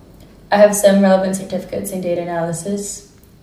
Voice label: interviewee female